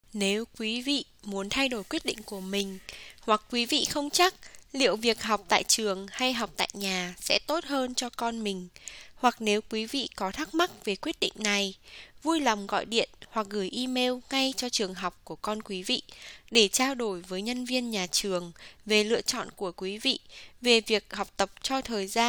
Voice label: Script Vietnamese

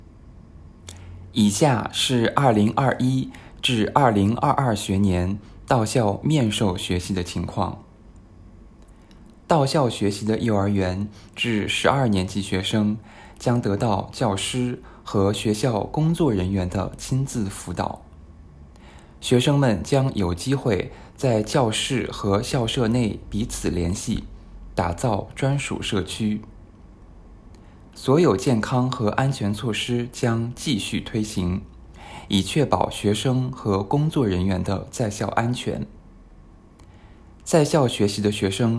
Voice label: Mandarin

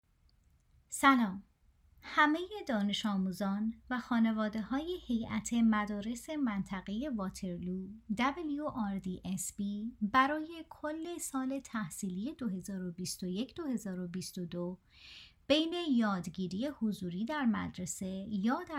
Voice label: Intro Farsi

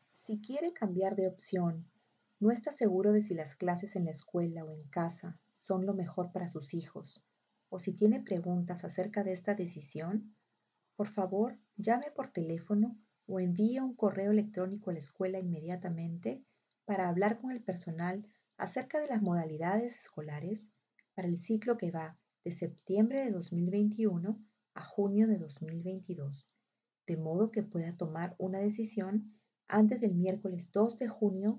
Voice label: Spanish

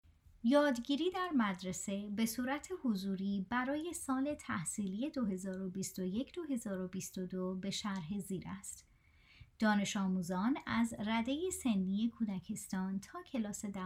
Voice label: Learning at School Farsi

